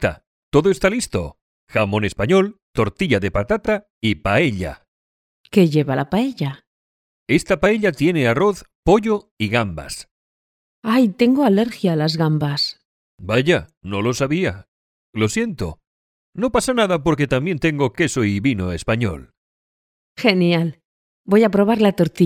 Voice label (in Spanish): dialogo principiante cena española